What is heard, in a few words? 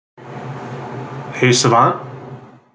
hey savant, wake word, en